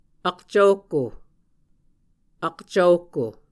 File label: "akcvohko", muscogee